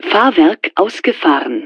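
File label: Gear Down, German; Betty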